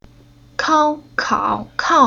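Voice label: "Kao", Chinese syllable; Уроки фонетического блока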